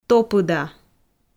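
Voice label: "топыда", Komi, female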